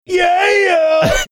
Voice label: saying yeeaaah

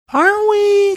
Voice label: high pitched are we

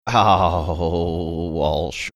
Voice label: funny drawn out

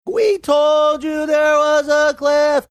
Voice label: funny

